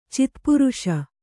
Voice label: "ಚಿತ್ಪುರುಷ", Kannada

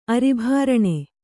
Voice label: "ಅರಿಭಾರಣೆ", Kannada

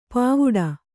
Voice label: "ಪಾವುಡ", Kannada

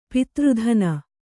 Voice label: "ಪಾತ್ರದಾನಿ", kannada

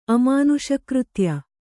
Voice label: "ಅಮಾನುಷಕೃತ್ಯ", Kannada

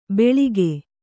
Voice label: "ಬೆಳಿಗೆ", Kannada

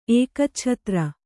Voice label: "ಏಕಚ್ಛತ್ರಿ", Kannada

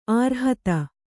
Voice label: "ಅರ್ಹತೆ", kannada

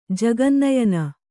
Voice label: "ಜಗನ್ನಯನ", kannada

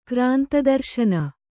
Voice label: "ಕ್ರಾಂತದರ್ಶನ", Kannada

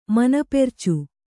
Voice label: "ಮನಪೆರ್ಚು", Kannada

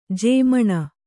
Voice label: "ಜಮೀನು", Kannada

